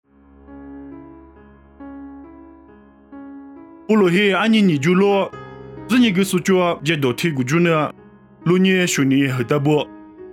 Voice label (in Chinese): 凉山彝语; 地中海坚革哩; nuosu